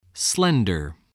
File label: "slender", voice, spelling